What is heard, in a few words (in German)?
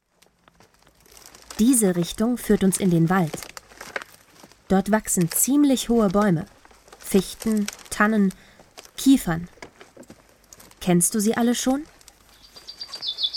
Kinderhoerspiel aufgeweckt